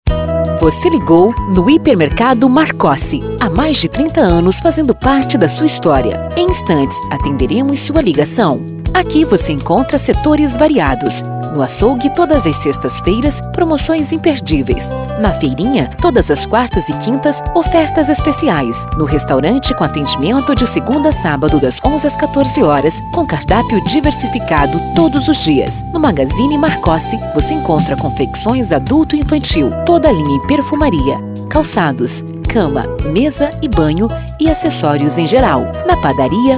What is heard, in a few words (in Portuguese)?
Espera telefonica; esperas-telefonicas